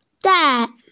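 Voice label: "zai4", Mandarin Chinese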